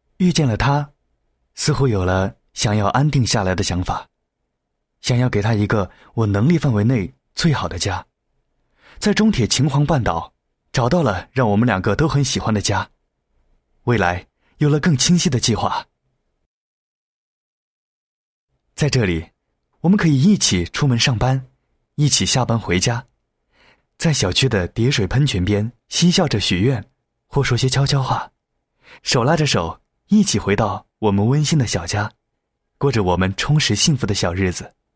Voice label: 男声 浑厚 角色 年轻; 年轻房地产

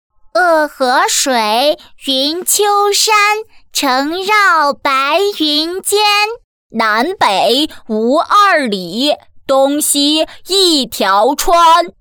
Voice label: 女 童声 朗诵

